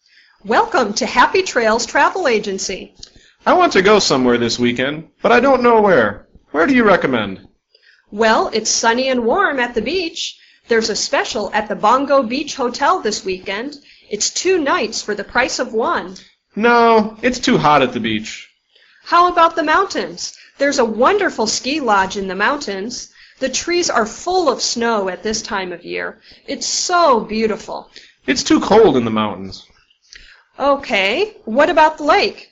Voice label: dialog